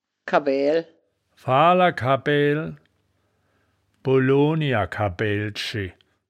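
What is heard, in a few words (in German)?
"kabbaeael", wittlicher woerterbuch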